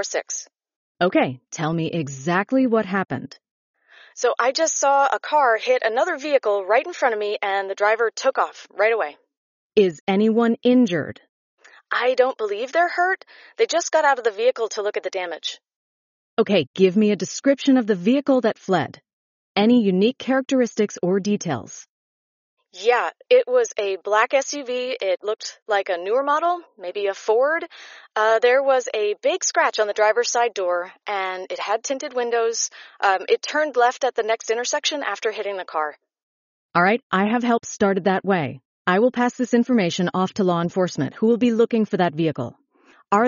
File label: prepared 911 call